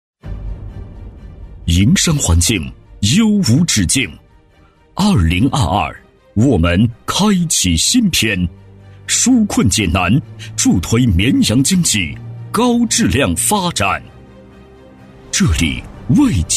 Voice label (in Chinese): 男 招商宣传片 大气有力; 营商环境大会; 高端厚重 大气浑厚